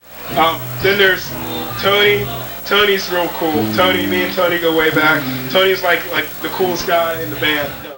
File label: old voice